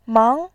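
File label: "mang", Mandarin, tone 2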